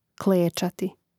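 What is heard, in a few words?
"klecati", Croatian; Mrežnik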